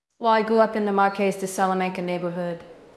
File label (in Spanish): Voice Acting es F0